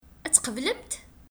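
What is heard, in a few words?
Kabyle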